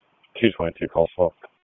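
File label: Police Northeast District Dispatch, p25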